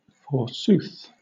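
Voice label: "forsooth" British English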